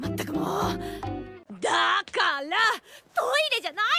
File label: deep voice; hideri; blend s